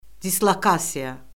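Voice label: "dislokasiya", Azerbaijani; Orfoqrafiya Lüğəti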